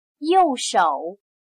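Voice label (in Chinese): "右手" Mandarin Chinese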